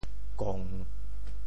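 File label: "kong3", Teochew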